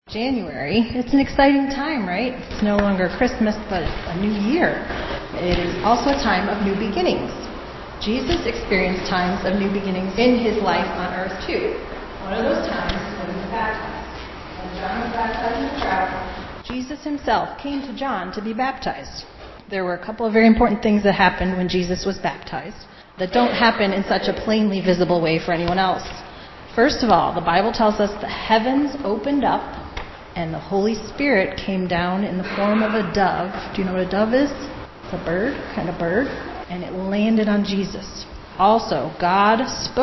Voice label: kids message